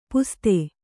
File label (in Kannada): "ಪಸದ", Kannada